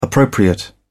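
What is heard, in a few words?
"appropriate", British English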